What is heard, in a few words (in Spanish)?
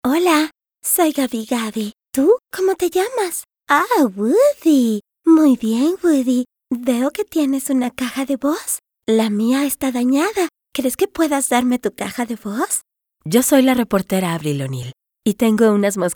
DOBLAJE